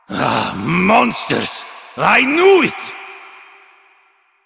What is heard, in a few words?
Male Older; Villagers; en; Ostron; Warframe; Cetus Invasion